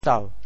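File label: "tsau2", Teochew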